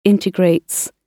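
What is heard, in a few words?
"integrates", British English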